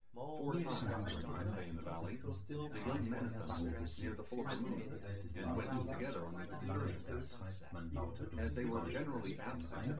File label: male synth conv 5cm sim, male; SASSEC07